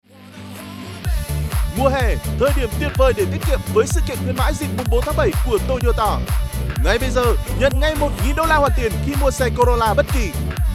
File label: vietnamese commercial